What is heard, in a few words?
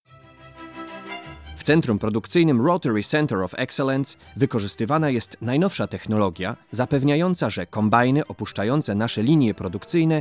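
Polish, male, corporate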